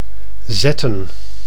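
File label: "zetten", Dutch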